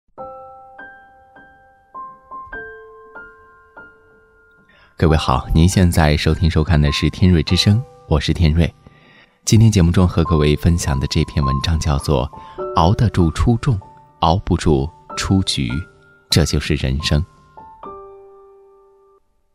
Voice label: 男 广播; 熬得住出众熬不住出局

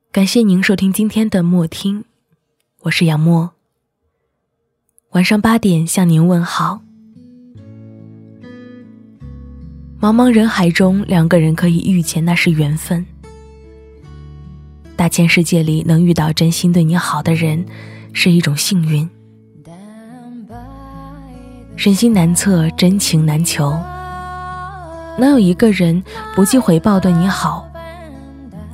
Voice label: 女-有声读物夜听风格温暖伤感; 课件

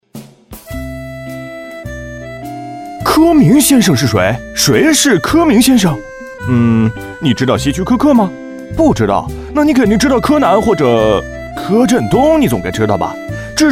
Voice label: 男

